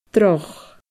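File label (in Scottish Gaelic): "droch", Scottish Gaelic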